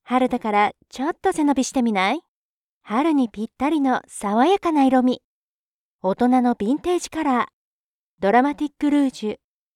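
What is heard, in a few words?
Japanese commercial; GoLocalise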